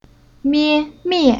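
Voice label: "Mie", Chinese syllable; Уроки фонетического блока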